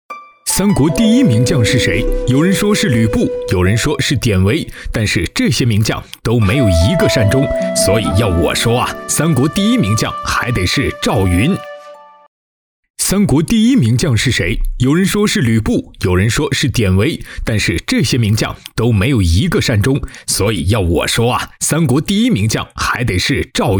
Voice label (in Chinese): 男-游戏动画, 浑厚大气 自然诉说; 赵云